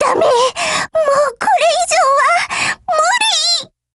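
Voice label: break, Japanese; HK23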